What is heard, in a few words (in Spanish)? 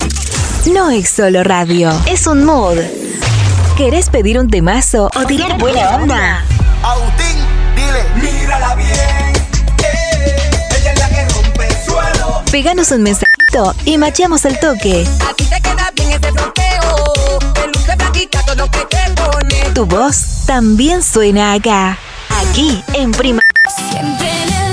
Arte Fem Primavera; A dos voces y acento neutro!; primavera 2025